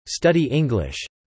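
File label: "study English" spoken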